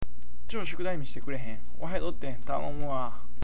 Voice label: "cyou", awaji ben